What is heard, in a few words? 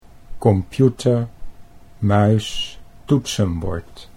"computermuistoetsenbord", Dutch